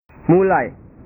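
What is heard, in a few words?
"mulai", Indonesian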